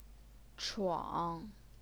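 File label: "chuang", third tone, Chinese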